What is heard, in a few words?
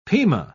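"pima", British English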